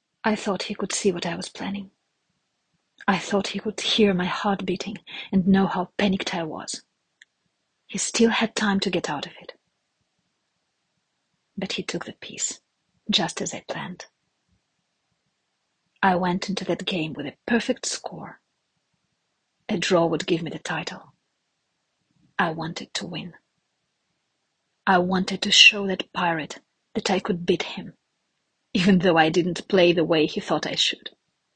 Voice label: english

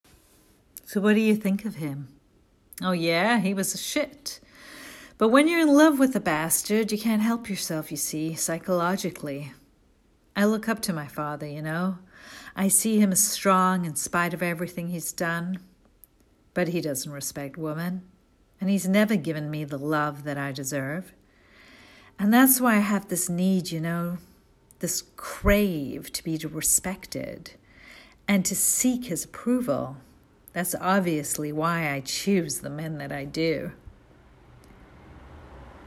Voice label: American Dialect

